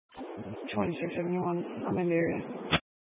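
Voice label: Sheriff Dispatch, scanner audio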